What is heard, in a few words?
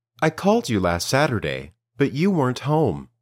Dictation